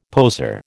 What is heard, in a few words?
"poseur", American